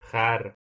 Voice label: "kharr", Persian

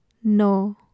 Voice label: "nooh", Urdu

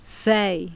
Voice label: "sei", Italian

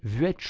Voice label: "vuech", Occitan

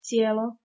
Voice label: "cijelo" Bosnian

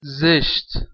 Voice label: "zeSt", Persian, M

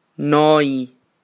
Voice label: Sardinian

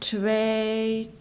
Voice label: "tvee" East Frisian, 2

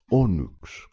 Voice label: "onuks" Ancient Greek

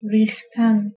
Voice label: "rikhtan", Persian

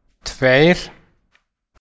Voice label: "tveir" Icelandic, 2

